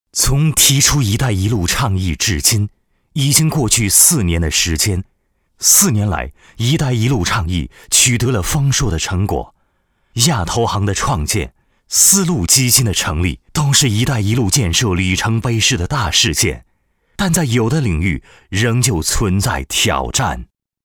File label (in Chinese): 男 专题; 一带一路; 与华商专题